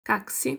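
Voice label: Bulgarian how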